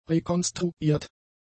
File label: "rekonstruiert", German; adjectives